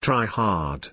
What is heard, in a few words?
"trihard" pronunciation